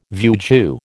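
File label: "vieux jeu", American English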